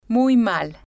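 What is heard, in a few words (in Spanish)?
muy mal, Spanish, female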